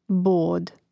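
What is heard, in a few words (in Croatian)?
"bod", Hrvatski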